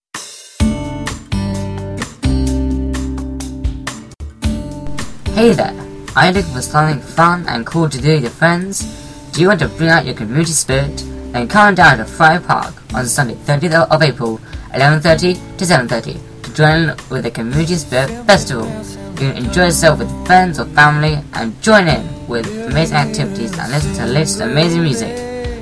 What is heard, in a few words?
audio advert